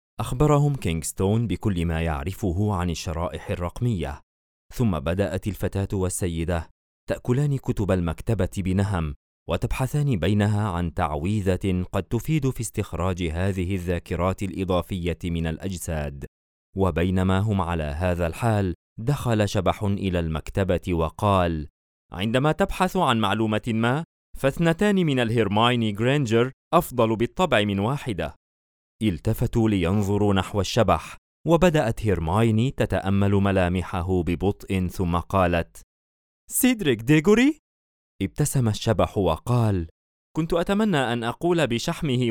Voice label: Audio book